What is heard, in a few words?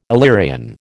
"Illyrian", American